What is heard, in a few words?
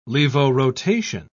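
"levorotation", British English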